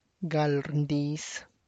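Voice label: Tlacochahuaya Zapotec; lifts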